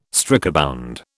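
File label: "strikebound", British English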